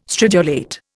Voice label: "stridulate", Indian

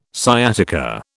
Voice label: "sciatica", British

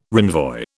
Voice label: "renvoi", British

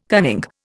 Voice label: "cunning", Indian

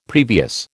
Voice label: "previous", American

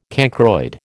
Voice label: "cancroid", American

